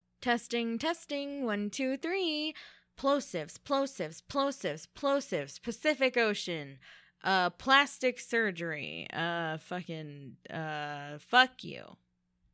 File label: NEW MICROPHONE TESTING AAAA